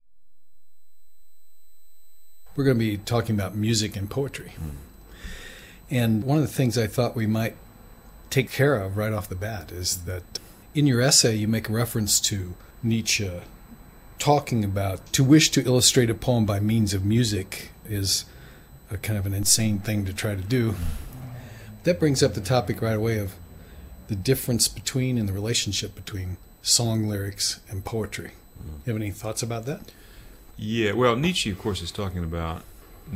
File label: interview